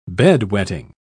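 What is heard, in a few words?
"bed-wetting", British English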